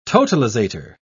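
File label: "totalizator", English, British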